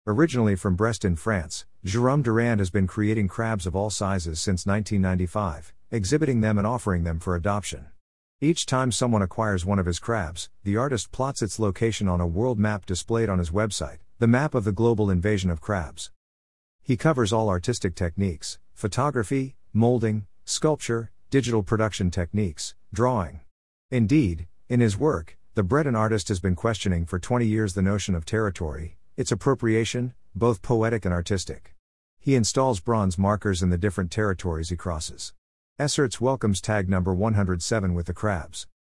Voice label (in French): anglais